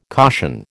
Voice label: "caution", American